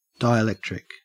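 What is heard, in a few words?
"dielectric", Australian English; pronunciations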